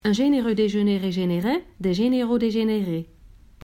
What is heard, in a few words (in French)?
virelangue